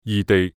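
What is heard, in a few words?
"義地", Cantonese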